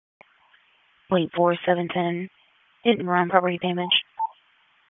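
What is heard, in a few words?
Police Dispatch; Wake Forest Police Disp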